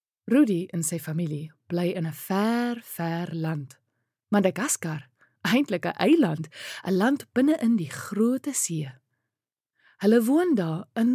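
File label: Afrikaans, female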